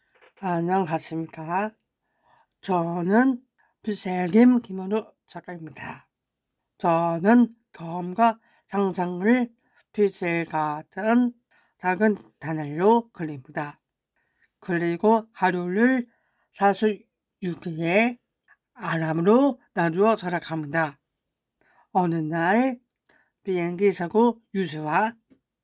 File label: Melody of Chaos Voice Korean; Sync Shorts exhibition spring 2026